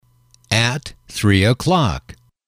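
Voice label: "three oclock", English, male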